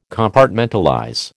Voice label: "Compartmentalize", American